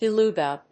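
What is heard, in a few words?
"beluga", English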